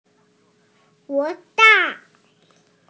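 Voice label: "huo3ban4", Mandarin Chinese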